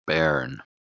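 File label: "bairn", English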